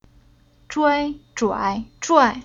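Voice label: "Zhuai", Chinese syllable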